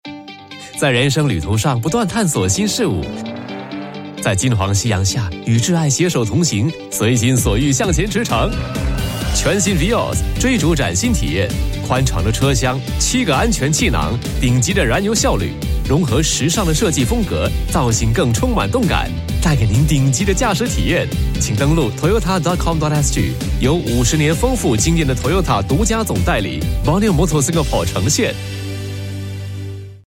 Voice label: Mandarin; Taiwan; Borneo Motors Toyota